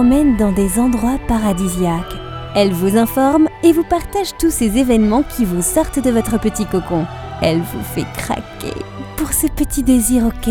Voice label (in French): Comédienne Voix Off